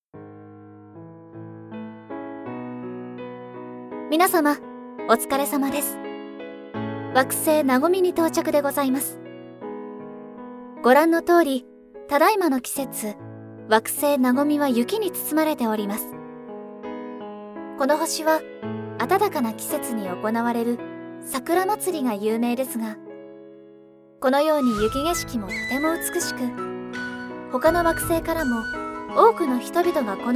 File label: announce